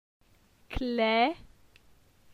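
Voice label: "Cle", French; phonetique; la syllabe e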